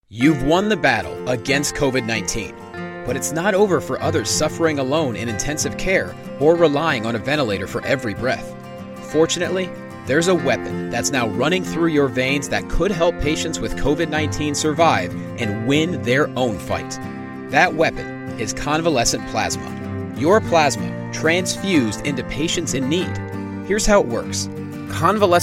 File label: Explainer; Convalescent Plasma